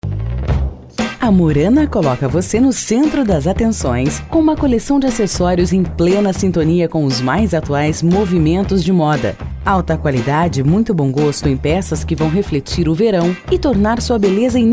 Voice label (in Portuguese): Spot; Morana 30